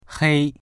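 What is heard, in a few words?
"hei1", Chinese; 黑; 黒い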